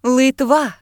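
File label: "lithuania", Ukrainian; gcompris; geography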